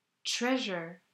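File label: "Treasure", English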